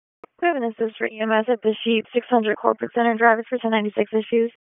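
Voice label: Police Southwest District Dispatch; Raleigh; wake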